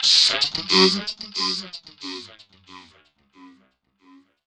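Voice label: effects talk, Funky Vocals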